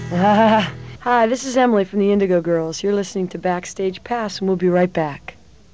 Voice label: station id

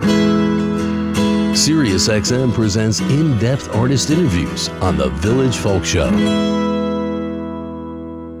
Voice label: promo

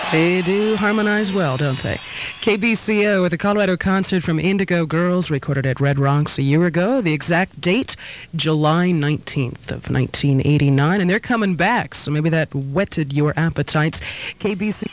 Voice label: station break

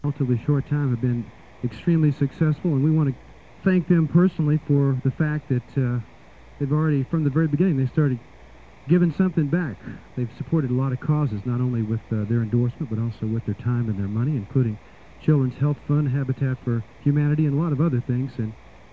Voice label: announcer

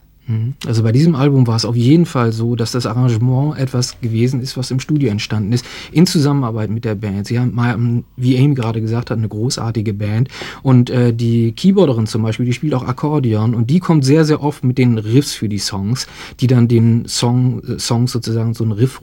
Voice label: german translation

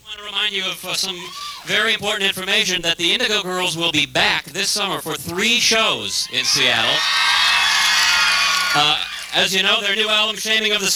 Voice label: announcer

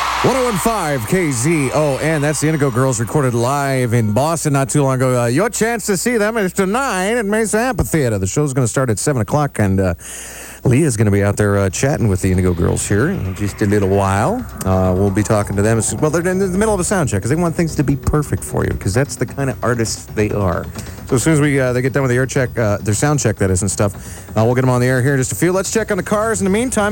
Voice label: announcer

